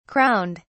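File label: "crowned", British English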